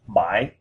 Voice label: seven vietnamese